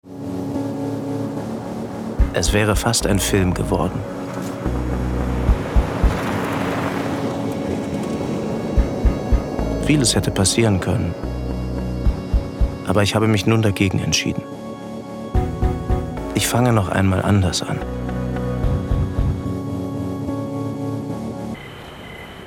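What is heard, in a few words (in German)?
Off, Comment (Kommentar), Narrative, German; Ab wann gab es kein Zurück mehr- Marcel Odenbach; LOFT VOICES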